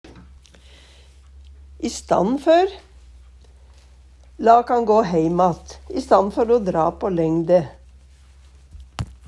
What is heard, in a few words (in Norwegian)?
i stann for, Numedalsmål; i staden for; La kann gå heimatt i stann før o dra på lengde.